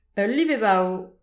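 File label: "ul lip e bav", Breton; petit precis de prononciation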